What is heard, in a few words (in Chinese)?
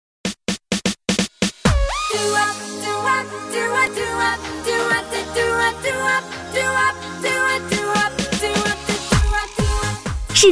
女1 促销, 国语女声配音, 磁性; 世界品牌波司登